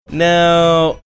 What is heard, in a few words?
drawn out no